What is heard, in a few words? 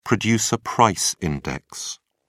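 "producer price index", English, British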